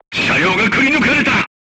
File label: voice message player tank hit, crew tanks japanese